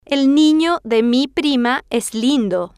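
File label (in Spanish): el nino de mi prima es lindo, spanish, female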